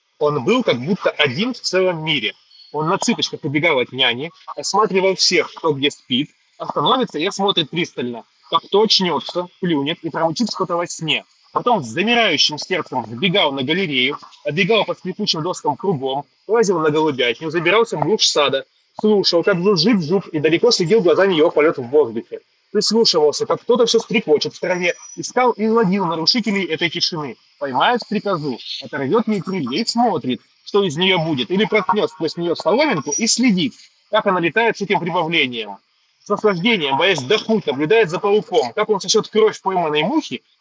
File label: Mic noise; nothing headphone a